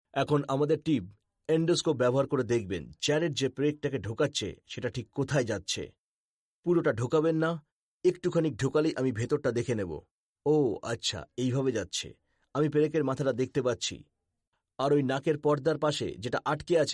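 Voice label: Bengali, male, narrative; voice over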